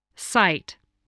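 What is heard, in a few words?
"site", spoken word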